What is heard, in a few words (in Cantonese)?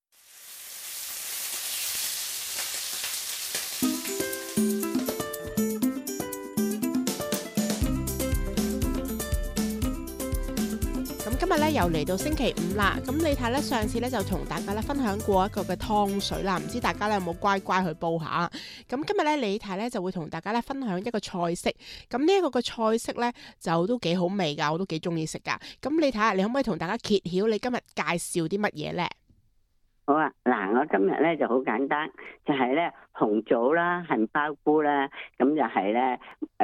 cantonese; gourmet express